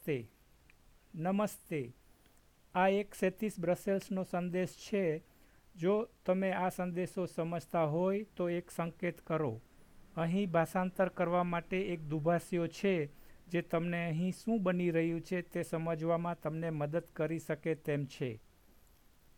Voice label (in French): Gujarati